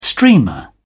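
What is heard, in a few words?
"streamer", English, British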